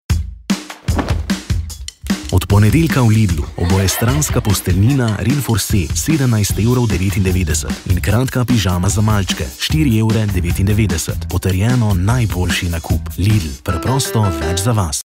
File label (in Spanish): Esloveno Eslovenia Masculina Adulta